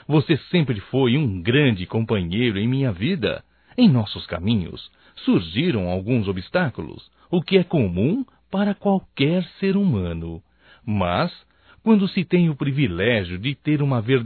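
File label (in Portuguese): NATAL AMIGO (Masculino); Natal e ano novo; Telemensagens 2017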